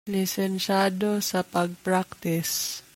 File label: Cebuano